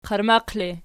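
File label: "qarmaq" ergative, Archi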